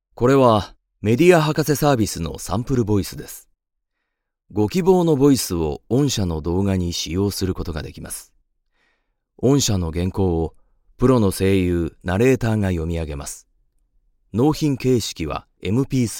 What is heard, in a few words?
cool, narration sample voice men